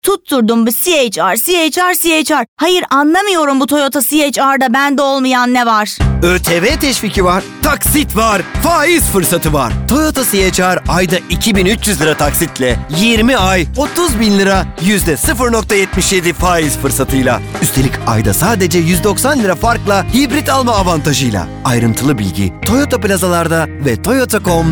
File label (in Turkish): Toyota C-HR Kampanyası